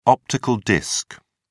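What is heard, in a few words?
"optical disc", British English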